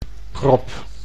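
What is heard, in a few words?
"krop", Dutch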